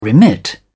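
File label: "remit", British English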